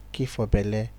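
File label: "keep for belle", Nigerian Pidgin, male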